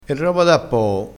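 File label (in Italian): "da poo", dialettale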